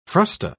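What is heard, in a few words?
"frusta", British English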